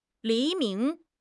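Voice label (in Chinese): "黎明", Chinese; HSK; HSK6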